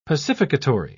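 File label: "pacificatory", British English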